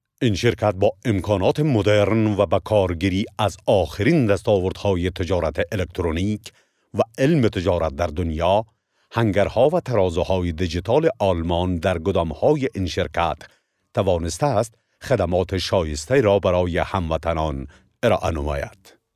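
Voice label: Dari Afghanistan male voice over, narrative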